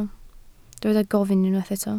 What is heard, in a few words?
"gofyn", Welsh